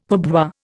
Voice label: "pourboire", Indian; Dictionary

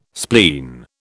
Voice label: "spleen", British

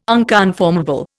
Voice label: "unconformable", Indian

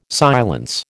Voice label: "silence", American

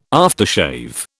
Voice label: "aftershave", British

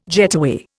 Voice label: "getaway", Indian

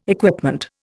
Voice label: "equipment", Indian

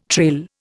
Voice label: "trail", Indian

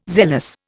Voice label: "zealous", Indian

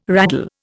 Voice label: "raddle", Indian

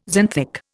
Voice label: "xanthic", Indian

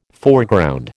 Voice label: "Foreground", American

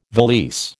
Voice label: "valise", American

